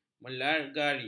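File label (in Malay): "melegari", Malay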